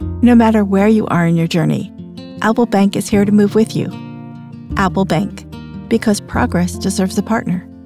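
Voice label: understanding, Professional Female Voice Actor; Apple Bank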